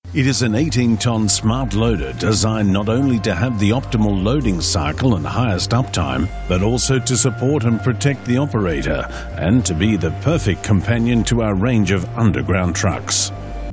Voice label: narration mining industrial

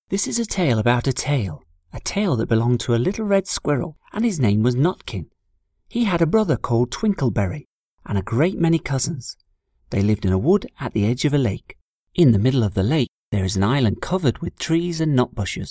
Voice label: childrens book narration

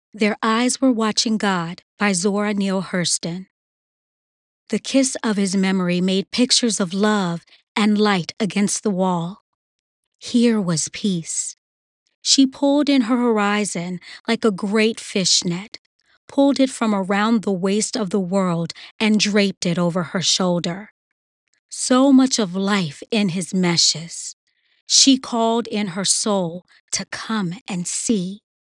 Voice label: Audiobook, female voice over

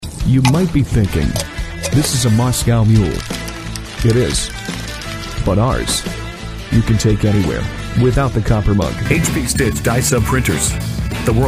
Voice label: Commercial

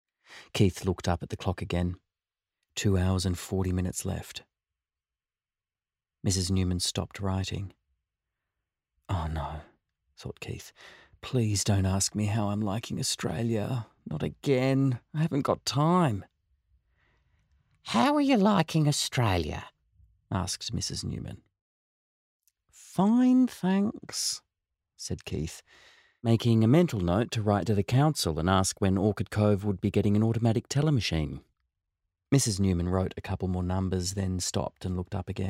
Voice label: VO Audiobook kids fiction; Worry Warts

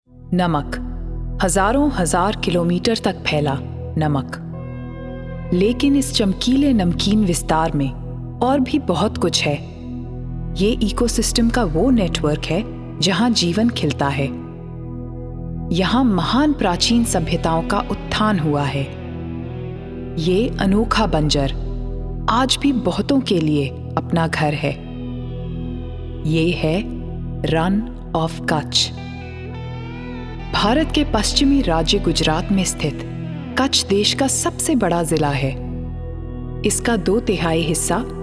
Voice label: Hindi Documentary

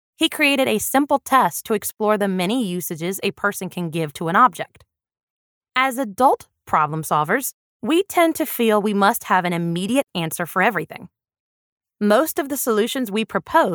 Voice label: Nonfiction education female narration

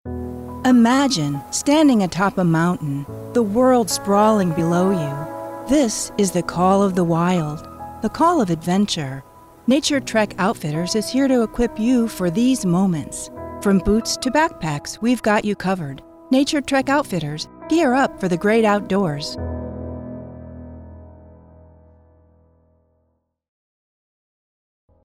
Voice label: Sporting Goods Store Ad, female voice

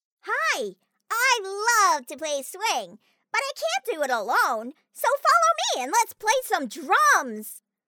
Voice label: Cartoon TV Series Teaser

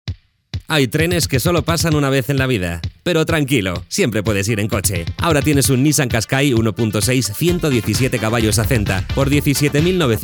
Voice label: Commercial Spanish

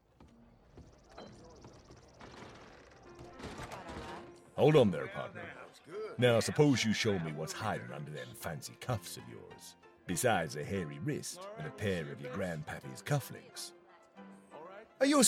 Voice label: Western Gun Slinger

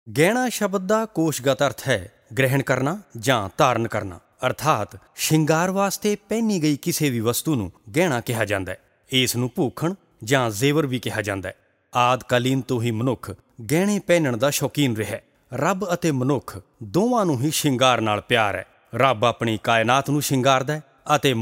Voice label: Vocal Punjabi

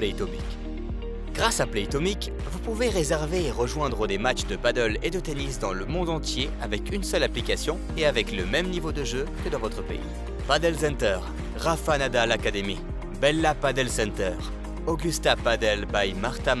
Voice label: Sport commercial; playtomic

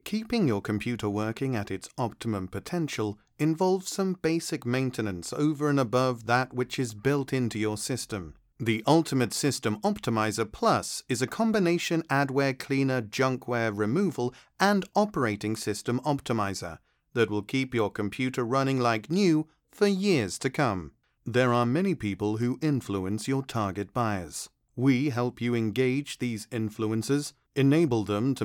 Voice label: Explainer Samples

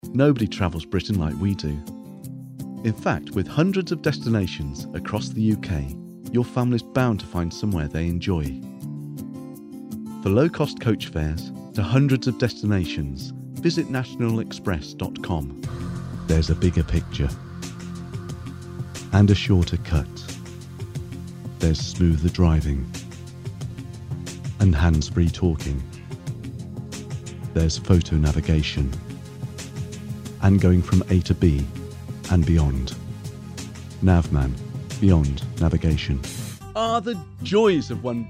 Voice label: tv radio sample

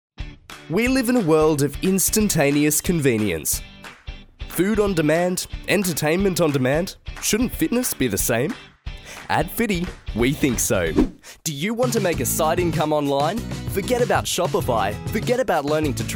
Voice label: Explainer Video

